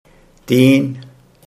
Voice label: "tin", Hindi

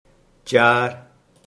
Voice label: "char", Hindi

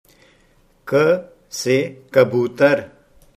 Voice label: "kabutar", Hindi

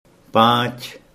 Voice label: "panch", Hindi

